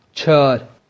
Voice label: "char", Kurmanji